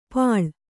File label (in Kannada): "ಪಾಳ", Kannada